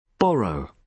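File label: "borrow", British English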